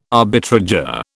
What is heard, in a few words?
"arbitrageur", British